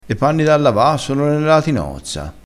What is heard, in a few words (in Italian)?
"catinozza", crespinese